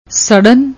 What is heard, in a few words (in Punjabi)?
"srrn", Punjabi